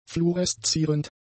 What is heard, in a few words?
"fluoreszierend", German; adjectives